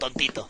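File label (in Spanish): "tontito", Spanish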